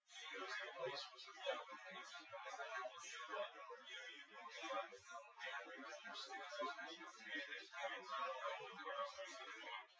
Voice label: male; SiSEC08; SiSEC underdetermined